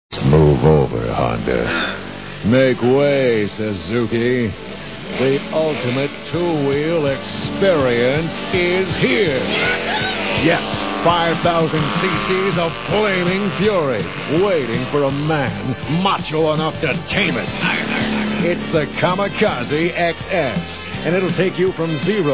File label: Commercials; Freekin Weird Audio